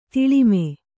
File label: "ತಾಲೀಮ", Kannada